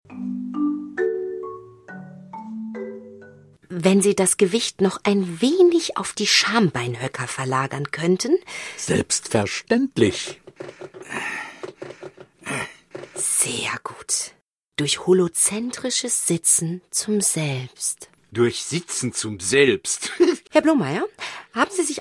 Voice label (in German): dialogische Lesung